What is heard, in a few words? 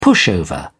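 "pushover", British English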